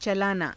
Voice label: "calaanaa", Hindi; [他動]運転する，作動させる; चलाना